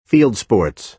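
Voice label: "field sports", American English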